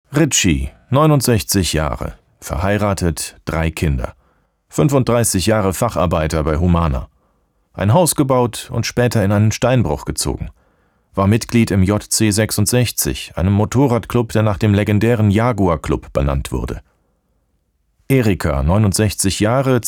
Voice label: german documentaries; actor de doblaje profesional

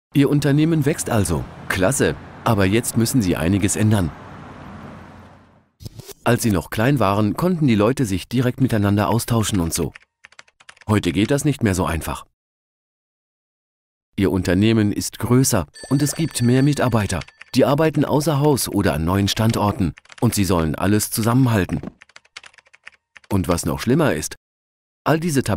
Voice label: German

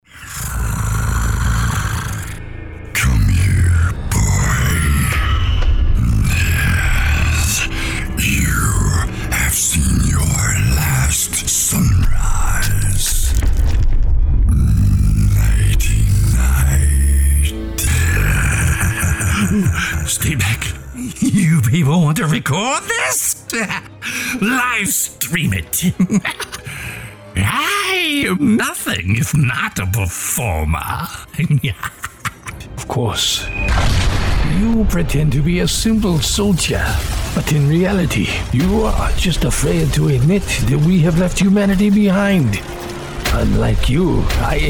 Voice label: english american video games

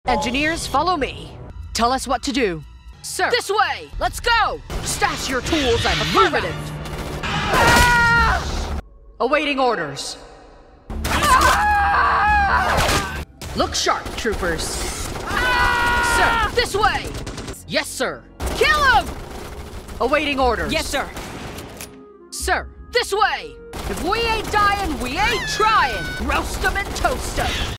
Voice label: english american video games

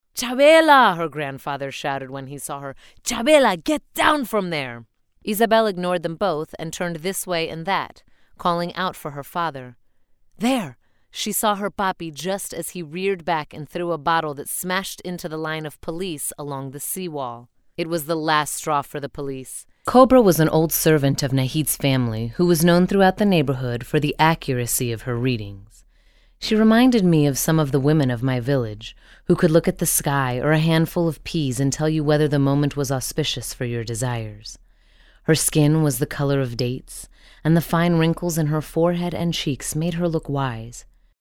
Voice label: english american audiobooks

